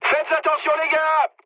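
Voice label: near waypoint, mood high, French; War Thunder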